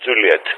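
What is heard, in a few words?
"Juliett", spoken; Ka-50; Alphabet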